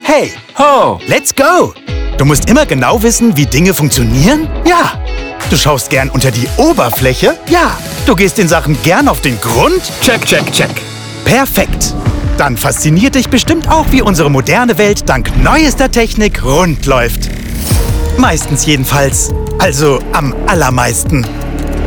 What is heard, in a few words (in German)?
Erklärvideo für Jugendliche